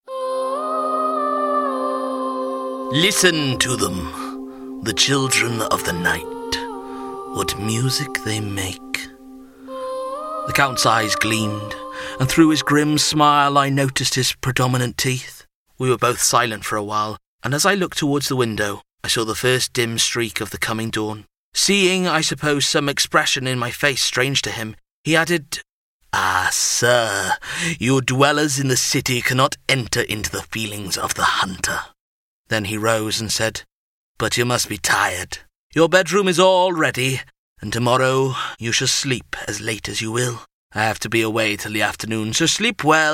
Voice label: Audiobook

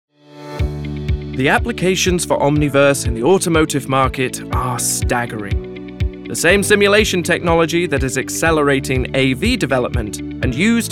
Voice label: Narrative

